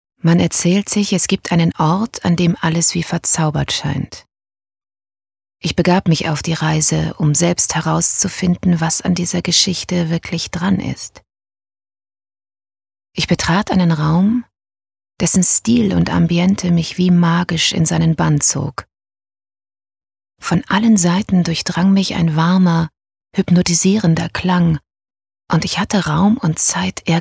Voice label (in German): Schauspiel Sanft